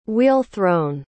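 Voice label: "wheel-thrown", English word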